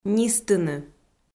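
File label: "нистыны", Komi, female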